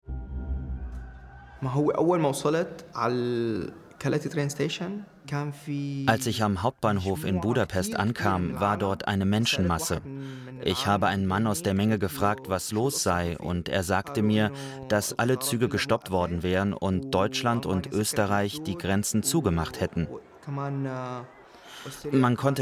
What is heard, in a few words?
voice over; arte; drei tage im september